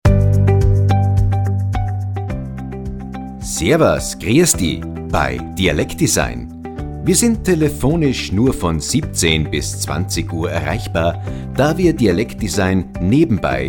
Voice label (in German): Telefonansage